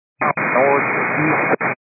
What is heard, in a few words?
122.925 MHz; scanner radio